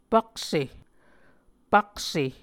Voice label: "pakse", Muscogee